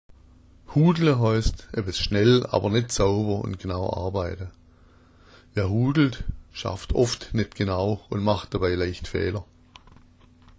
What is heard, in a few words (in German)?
"hudla", schwaebisch